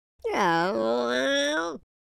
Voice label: sad, London Cabbie; speech